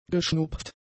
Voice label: "geschnupft", German; adjectives

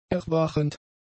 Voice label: "erwachend", German; adjectives

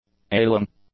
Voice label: "ailing", English; adjectives

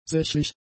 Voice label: "sächlich", German; adjectives

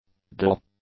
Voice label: "daedal", English; adjectives

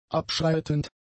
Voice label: "abschreitend", German; adjectives

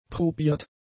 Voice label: "probiert", German; adjectives

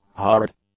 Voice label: "hard", English; adjectives

